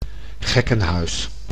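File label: "gekkenhuis", Dutch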